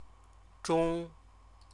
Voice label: "zhong", Chinese

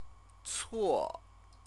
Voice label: "cuo", Chinese; CTCFL; University of Oxford

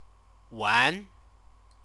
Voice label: "wan", Chinese

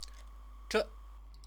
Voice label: "zhe", Chinese; 着; [particle]; verb-particle

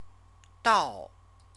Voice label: "dao", Chinese; CTCFL; University of Oxford

